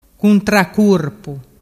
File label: "cuntracurpu", dialettu